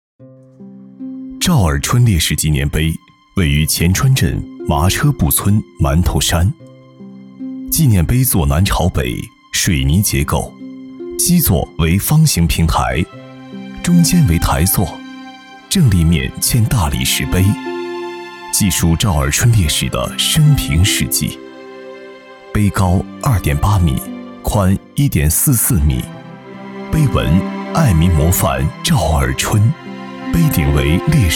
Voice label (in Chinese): 男, 纪录片, 叙述感, 纪念碑